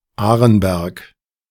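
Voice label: "Arenberg", German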